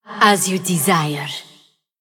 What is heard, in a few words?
Female Djinn Attack